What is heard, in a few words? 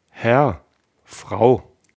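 "herr frau", German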